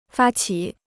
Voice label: "发起", Chinese

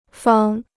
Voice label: "方", Chinese

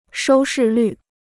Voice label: "收视率", Chinese

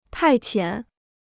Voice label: "派遣", Chinese

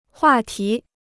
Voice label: "话题", Chinese

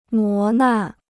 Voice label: "磨难", Chinese

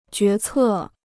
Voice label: "决策", Chinese

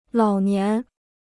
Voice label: "老年", Chinese

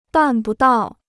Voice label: "办不到", Chinese

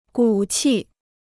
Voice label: "骨气", Chinese

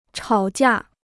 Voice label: "吵架", Chinese

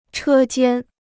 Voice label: "车间", Chinese

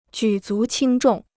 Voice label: "举足轻重", Chinese

